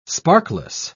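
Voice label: "sparkless", British English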